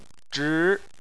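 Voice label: "zhi" Chinese, second tone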